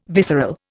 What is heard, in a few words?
"visceral", Indian